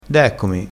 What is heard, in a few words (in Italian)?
"deccomi", crespinese